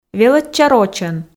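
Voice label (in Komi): велӧдча рочӧн, Komi, female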